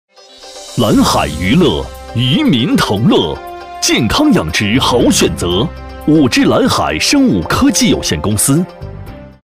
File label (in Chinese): 男 广告配音; 健康养殖好选择; 厚重有力 大气浑厚; 兰海渔乐